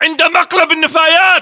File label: landmark near dumpster, battle chatter, english